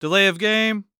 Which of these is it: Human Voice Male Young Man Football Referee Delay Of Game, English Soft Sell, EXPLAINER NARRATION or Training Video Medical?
Human Voice Male Young Man Football Referee Delay Of Game